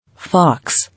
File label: "Fox", English